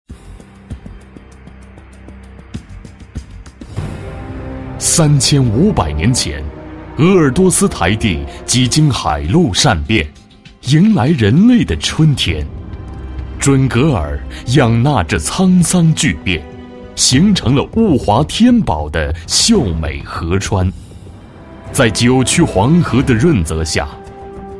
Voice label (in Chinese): 专题 政府 历史感 稳重, 男国; 准格尔历史感